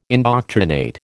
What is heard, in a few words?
"indoctrinate", American